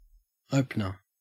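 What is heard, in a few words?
"opener", Australian English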